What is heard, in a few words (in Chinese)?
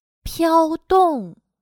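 "漂动", Chinese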